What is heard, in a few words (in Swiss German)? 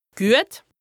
"güet", Alsace, HAUT RHIN; bien, bon; lexique indispensable